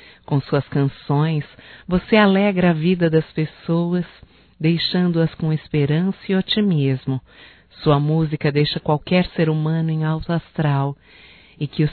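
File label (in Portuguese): Homenagem ao cantor, voz feminina; Telemensagens; HOMENAGENS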